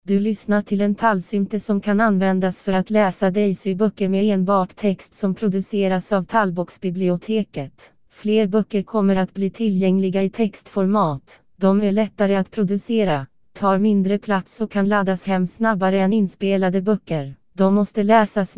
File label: swedish Female; alva